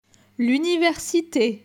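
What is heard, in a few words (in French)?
"L universite", French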